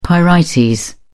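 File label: "pyrite", British English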